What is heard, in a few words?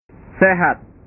"sehat", bahasa